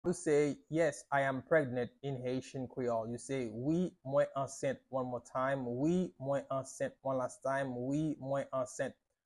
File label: Wi mwen ansent, Haitian Creole pronunciation by a native Haitian; Yes I am pregnant in Haitian Creole